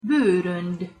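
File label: "borond", Hungarian pronunciation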